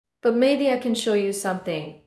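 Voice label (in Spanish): Voice Acting es F, Spanish, female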